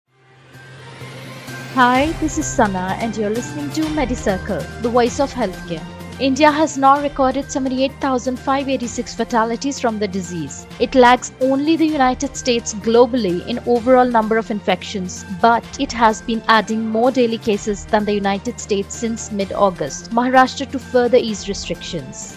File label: english news